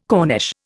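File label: "corniche", Indian English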